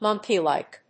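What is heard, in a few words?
"monkey-like", English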